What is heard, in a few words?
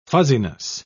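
"fuzziness", British English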